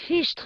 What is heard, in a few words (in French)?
"fichtre", voice; BALLY; CORVETTE; Son Corvette Original